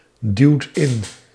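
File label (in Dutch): "duwt in", Dutch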